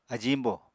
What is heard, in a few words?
"ajiñbò", apatani